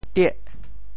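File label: "tieh8", Teochew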